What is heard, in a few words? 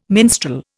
"minstrel", Indian